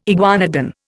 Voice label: "iguanodon", Indian

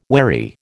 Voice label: "wherry", American

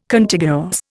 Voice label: "Contiguous", Indian

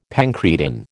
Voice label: "pancreatin", American

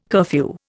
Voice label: "curfew", Indian

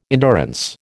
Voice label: "endurance", American

